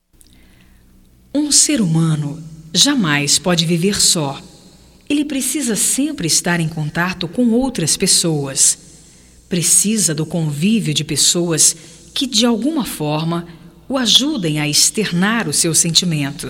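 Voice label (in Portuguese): CONSELHO GERAL, female, Telemensagens, Só Voz; Telemensagens 2017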